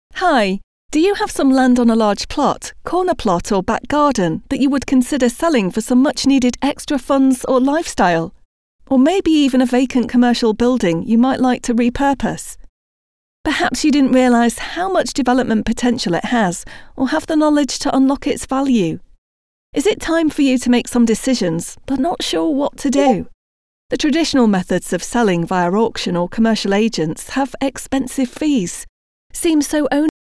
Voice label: UK Female; Studio Nonagram